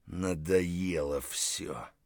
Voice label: mutter, voice; Escape from Tarkov